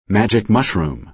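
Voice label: "magic mushroom", American English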